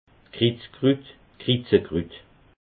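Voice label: "krizkrut", Bas Rhin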